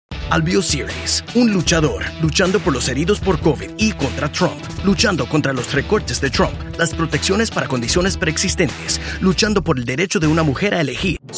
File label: Political Spanish Only